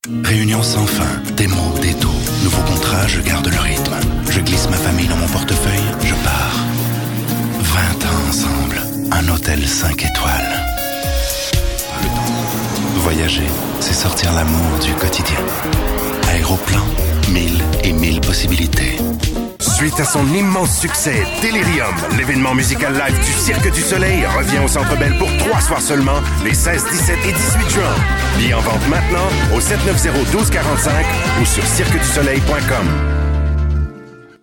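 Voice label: Canadian French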